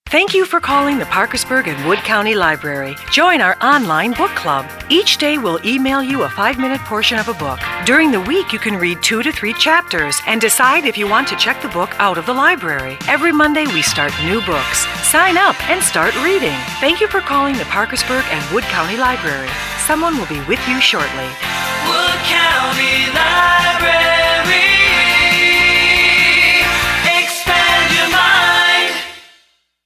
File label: On-hold (female)